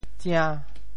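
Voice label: "tsia~5", Teochew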